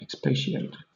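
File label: "expatiate", British English; pronunciations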